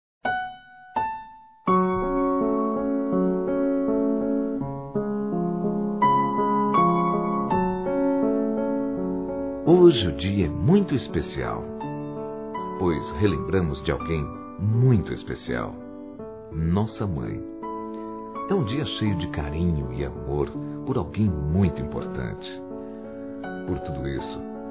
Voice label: MÃE DE CONSIDERAÇÃO, Masc; Dia das Mães; Telemensagens 2017

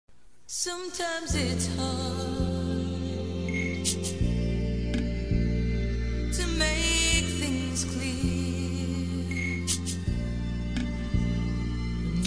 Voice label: ROM SAUDADE MASC

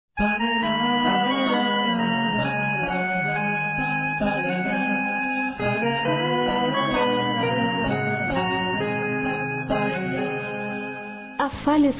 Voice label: Aniversário Atrasado Feminino

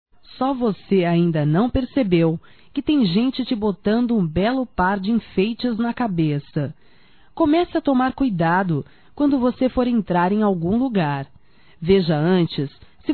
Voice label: Tem Alguem te Chifrando Feminino; Telemensagens 2017

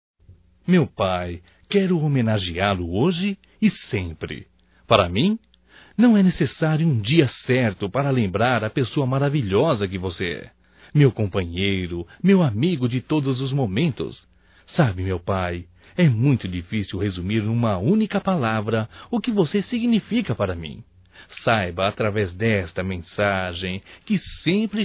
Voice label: HOMENAGEM AO PAI (M), Telemensagens; Homenagens; Telemensagens 2017

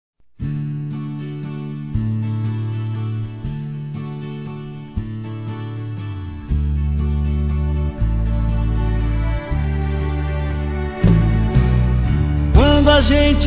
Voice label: Romântica suave m; Telemensagens; Saudades

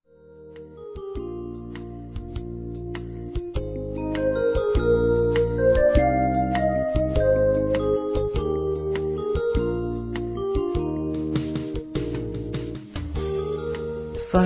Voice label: LEMBRANÇAS F, Sensuais; Telemensagens; fem

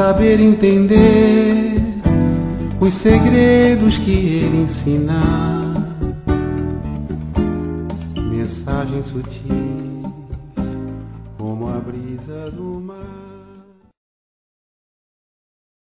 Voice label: Natal Para Neta Feminino; Telemensagens; Natal e Ano novo

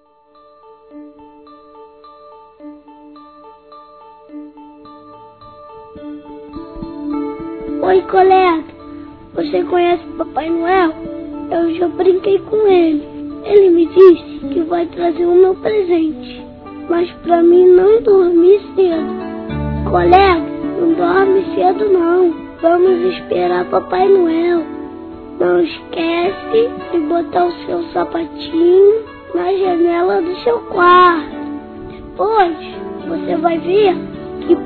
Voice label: Natal PARA Amiguinha (INFANTIL) Masculino; Telemensagens; Natal e Ano novo

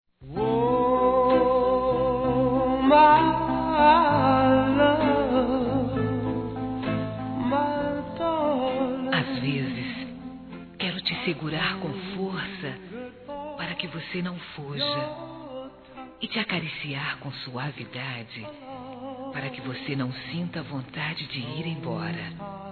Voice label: Picante Feminino; Telemensagens; Sensuais

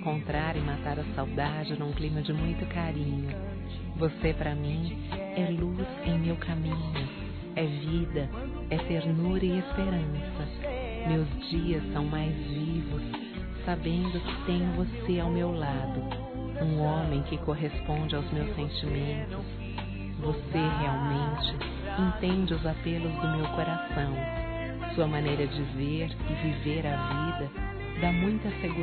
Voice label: BOA TARDE ROMANTICO, fem; Bom dia boa tarde boa noite

